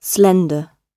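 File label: "slender", British English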